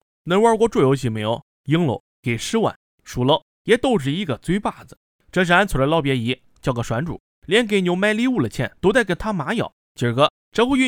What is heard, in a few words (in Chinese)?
河南话电影解说; 鱿鱼游戏